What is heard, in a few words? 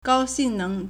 "gao1 xing4 neng2", Chinese